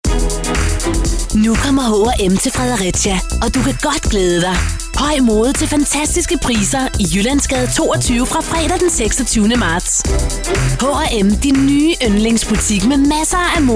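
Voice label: Danish commercial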